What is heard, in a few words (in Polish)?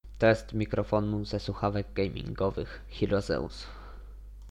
test mikrofonu ze słuchawek gamingowych; Hiro Zeus